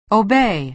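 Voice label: "obey", American English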